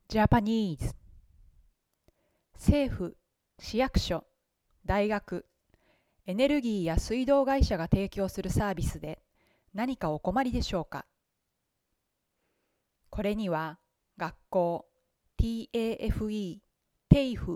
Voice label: Japanese

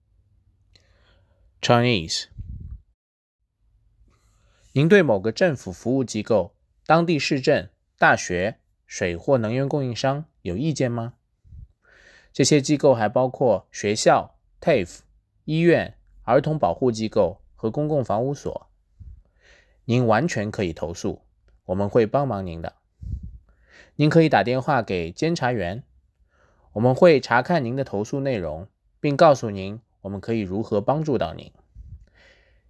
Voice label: Mandarin

